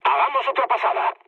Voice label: bomb failure, mood low, speech; War Thunder